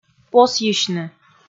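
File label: "посйысьны", Komi, female; kpv audio dict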